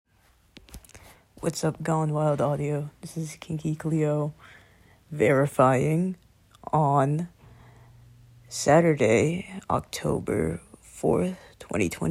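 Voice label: Verification, female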